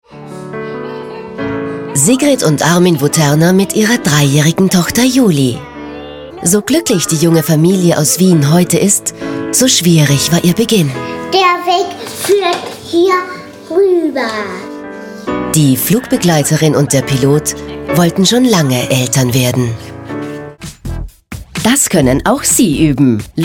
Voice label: Voiceover

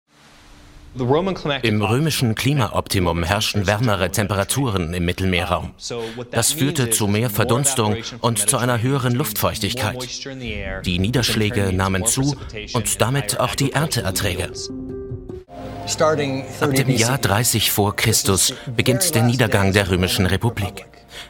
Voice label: Voice Over History; UNIVERSUM

